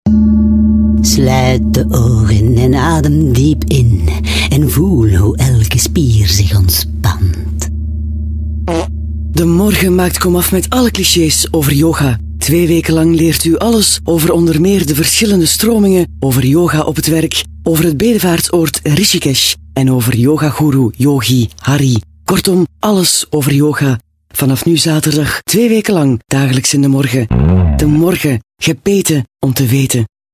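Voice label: Belgian, female, commercial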